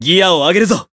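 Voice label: variable chant, Japanese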